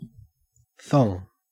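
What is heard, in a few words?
"thong", Australian; pronunciations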